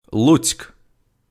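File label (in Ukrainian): "Луцьк", Ukrainian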